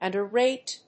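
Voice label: "underrate", English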